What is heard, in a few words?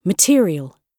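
"material", British English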